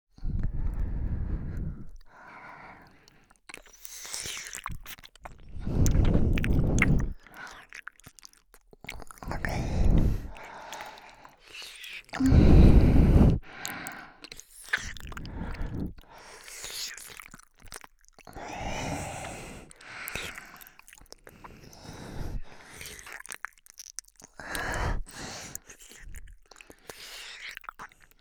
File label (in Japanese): 水音多め×鼻息×れろれろ耳舐め, ASMR